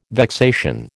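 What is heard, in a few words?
"vexation", American English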